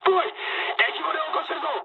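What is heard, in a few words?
damaged water mood high, speech japanese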